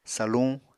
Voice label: "saluñ", Apatani